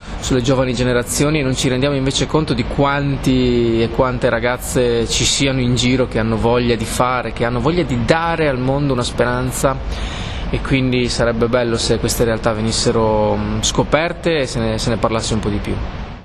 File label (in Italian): intervista breve